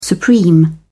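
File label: "supreme", British English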